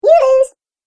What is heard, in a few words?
Cartoon Game Voice You Lose